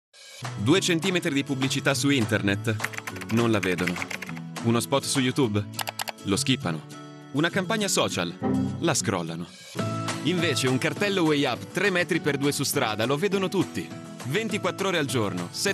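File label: Italian male voice over, sales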